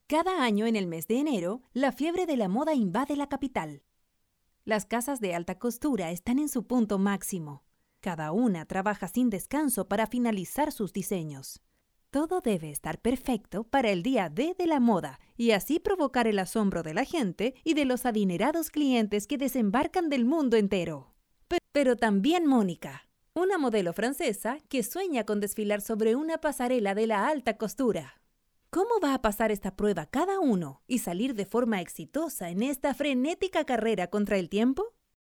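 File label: latam female narration; voice demos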